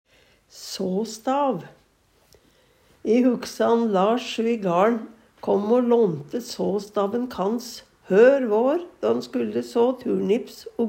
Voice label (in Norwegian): såstav, Numedalsmål